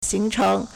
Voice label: "xing2cheng2", Chinese

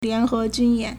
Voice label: "lian2he2jun1yan2", Chinese

